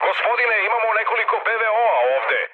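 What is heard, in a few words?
Serbian damaged aa mood med, speech; War Thunder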